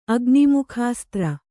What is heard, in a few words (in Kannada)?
"ಅಗ್ನಿಮುಖಾಸ್ತ್ರ", Kannada